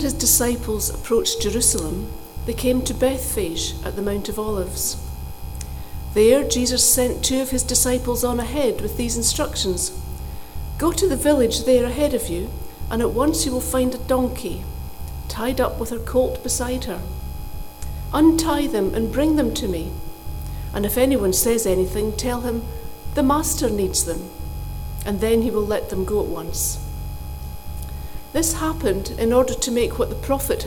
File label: read; palm sunday